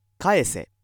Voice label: male